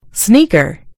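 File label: "sneaker", American English; gcompris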